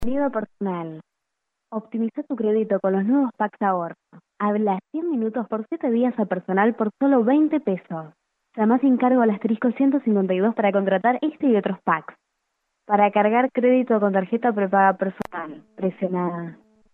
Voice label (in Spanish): mensajes telefonicos